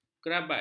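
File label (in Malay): "kerabat", Malay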